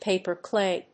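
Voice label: "Paper clay", English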